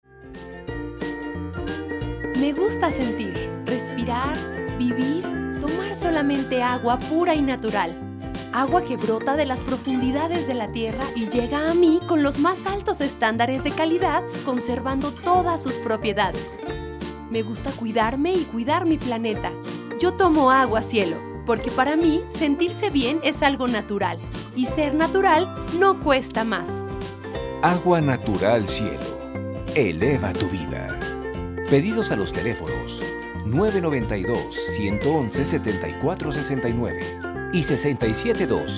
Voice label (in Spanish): spot radio, spots publicitarios; agua cielo